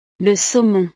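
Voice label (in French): "le saumon", French; el salmón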